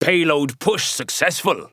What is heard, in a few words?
Announcer Match Payload Successful; Paladins; First Mate